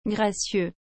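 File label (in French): "gracieux", French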